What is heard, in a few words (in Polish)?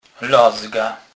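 "lazga", Kurpie dialect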